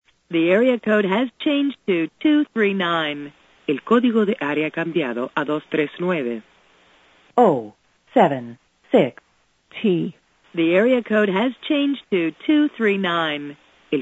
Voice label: The Area Code Has Changed to 239, modern north american telephone sounds; AT&T general error recordings